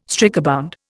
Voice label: "strikebound", Indian

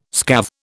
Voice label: "scathe", British

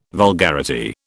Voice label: "vulgarity", British

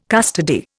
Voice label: "custody", Indian

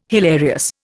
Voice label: "hilarious", Indian

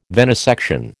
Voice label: "venisection", American

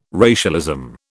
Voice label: "racialism", British

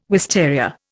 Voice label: "wisteria", Indian

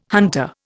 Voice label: "hunter", Indian

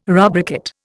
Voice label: "rubricate", Indian

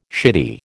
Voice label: "shitty", American

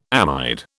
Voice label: "amide", British

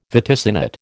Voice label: "vaticinate", American

